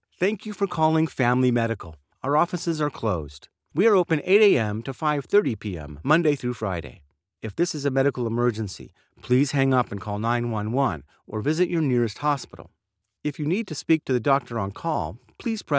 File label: IVR